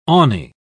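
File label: "awny", British English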